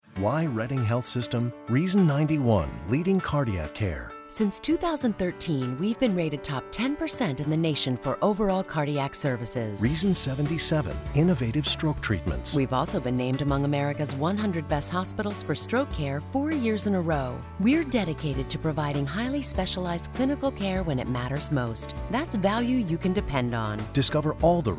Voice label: Radio Spot; Reading Health Sys; Cardiac Strk